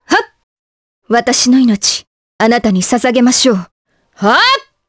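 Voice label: vox trs Japanese; Priscilla; kingsraid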